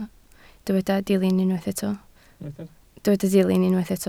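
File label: "dilyn" Welsh, spoken word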